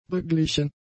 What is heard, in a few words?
"beglichen", German; adjectives